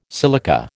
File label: "silica", American